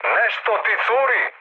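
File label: Serbian tank damaged mood high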